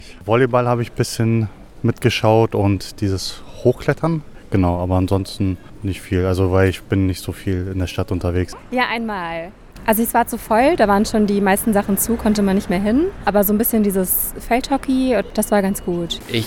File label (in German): Straßenumfrage; die finals hannover wird zur sporthauptstadt